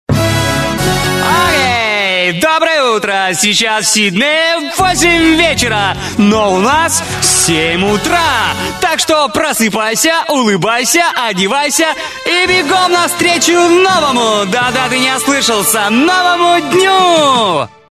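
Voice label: alarm clock, good morning wake up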